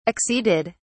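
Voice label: "acceded", English, British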